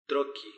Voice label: "troki", Palula